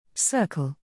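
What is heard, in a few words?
"circle", English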